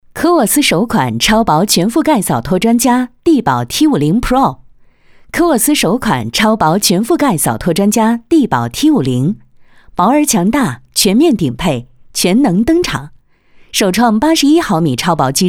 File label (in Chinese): 女, 理性科技大气, 数码电器 TVC